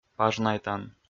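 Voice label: "пажнайтан", Komi, male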